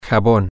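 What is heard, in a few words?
soap, Spanish, male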